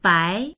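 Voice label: "bai", Mandarin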